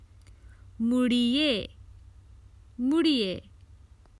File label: Common Hindi Phrases for travel Turn